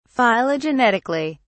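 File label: "phylogenetically", British English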